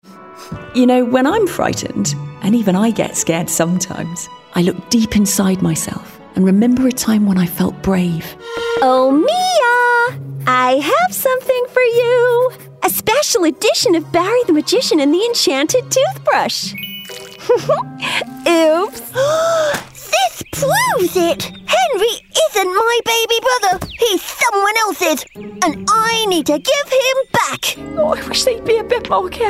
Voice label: Animation Character Reel